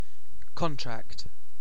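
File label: "contract", British English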